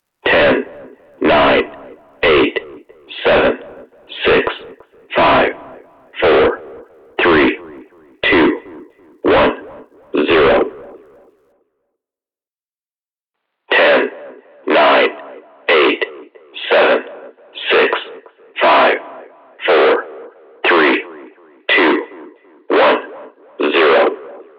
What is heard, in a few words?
Countdown Nasa Style; Jppi Stu